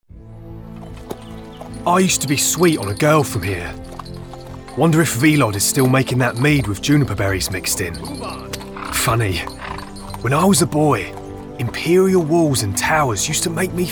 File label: Character